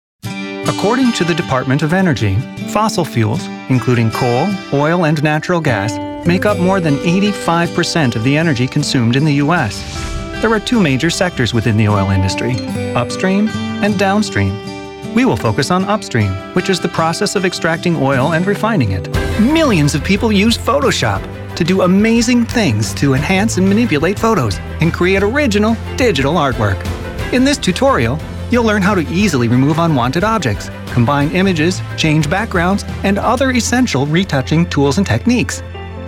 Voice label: Narrations